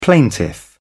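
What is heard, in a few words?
"plaintiff", British English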